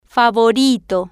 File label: "favorito", Spanish